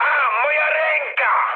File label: damaged pilot mood med, speech pol; War Thunder